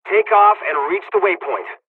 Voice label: airfield takeoff, dialogs czech